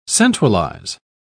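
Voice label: "centralise", British English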